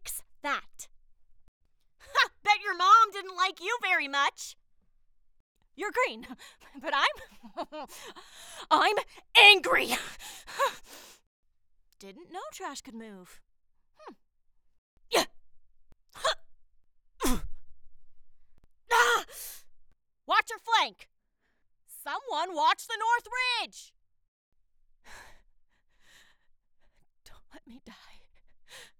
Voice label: Recruit Female